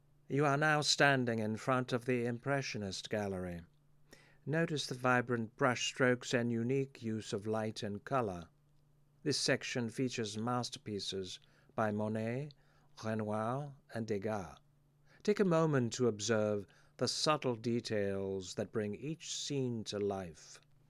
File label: Museum Audio Guide, voice-over narration; Museum Audio Guides